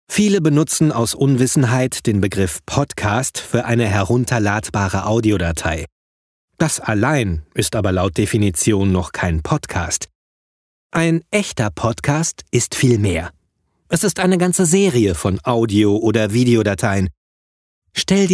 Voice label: e-learning technik

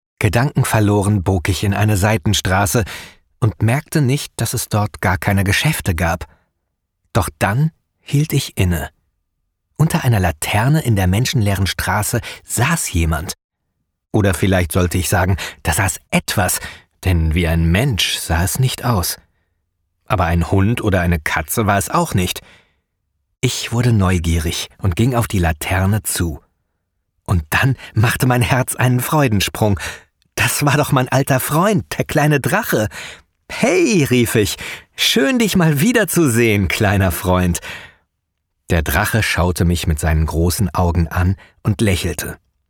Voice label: Kinderbuch, hoerbuch

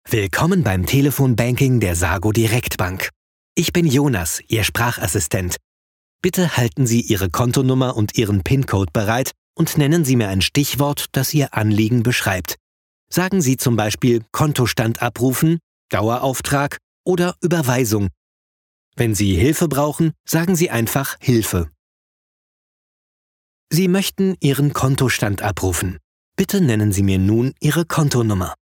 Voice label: ivr, Sprecher, telefonansagen

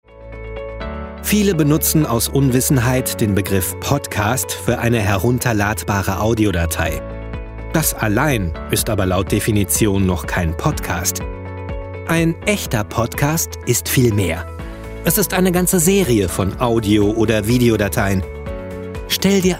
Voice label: e-learning musik

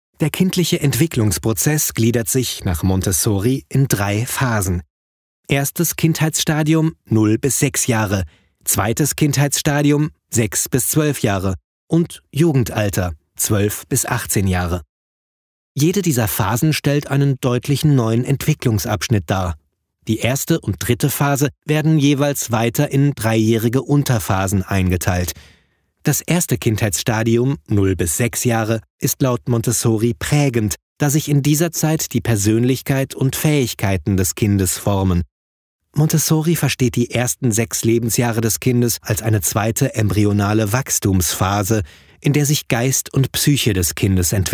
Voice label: e-learning paedagogik

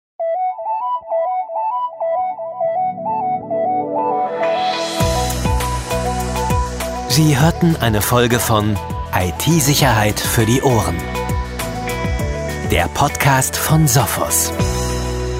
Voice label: Podcast Outro Sprecher

